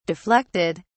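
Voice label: "deflected", British English, dictionary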